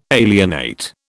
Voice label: "alienate", British